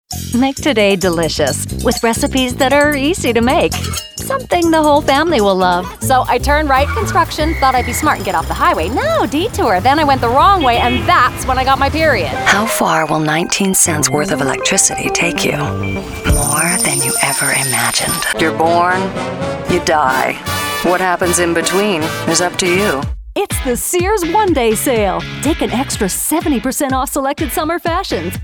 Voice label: Commercial